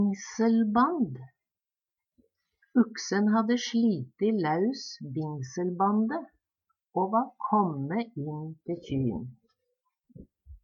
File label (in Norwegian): "bingselband", Numedalsmål